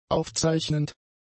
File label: "aufzeichnend", German; adjectives